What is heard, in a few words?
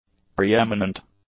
"preeminent", english; adjectives